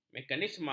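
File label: "mekanisme", Malay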